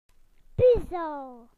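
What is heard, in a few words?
"puzzle", British English; PictureDictionary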